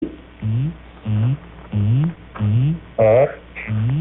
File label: ABC, voder